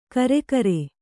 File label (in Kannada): "ಕರಿಕಾರೆ", Kannada